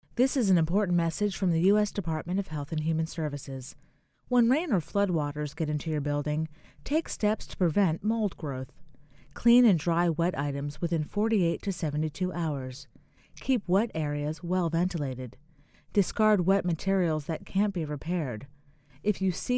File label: psa disaster cleanup mold female